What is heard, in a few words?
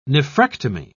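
"nephrectomy", British English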